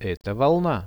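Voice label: "this is a wave", Russian; Это волна; nature; priroda; RUSSIAN VISUAL DICTIONARY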